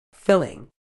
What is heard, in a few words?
"filling", medical English